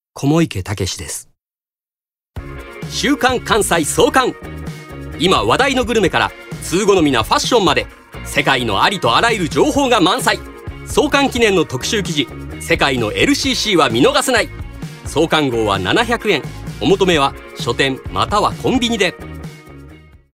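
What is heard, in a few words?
commercial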